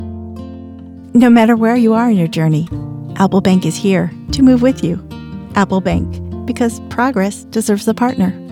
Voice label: warm friendly inviting down-to-earth, Professional Female Voice Actor; AppleBank